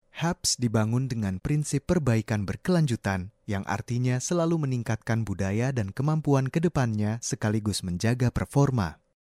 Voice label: Indonesia male